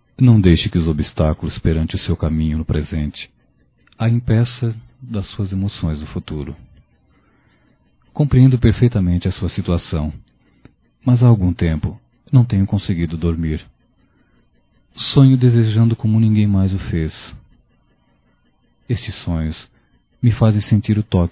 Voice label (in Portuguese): Amor Proíbido Masculino; Telemensagens 2017